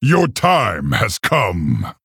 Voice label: Male Orc Start